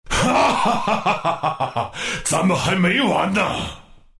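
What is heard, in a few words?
aegis, Chinese; Dota 2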